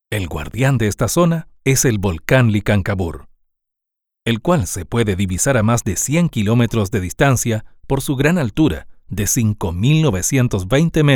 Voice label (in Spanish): mix locutor narrador